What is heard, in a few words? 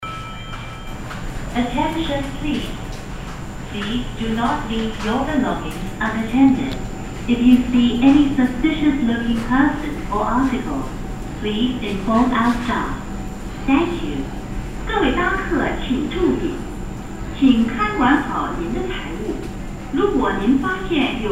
Flight Door Call